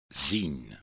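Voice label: "zien", Dutch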